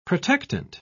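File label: "protectant", British English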